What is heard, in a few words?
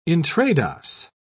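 "intrados", British English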